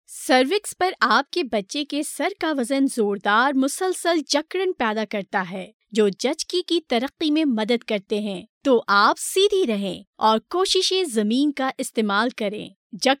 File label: female urdu voice over sales